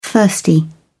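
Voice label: "thirsty", British English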